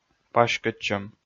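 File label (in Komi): "паськӧдчӧм", Komi, male; audio dict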